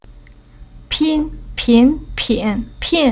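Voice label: "Pin", Chinese syllable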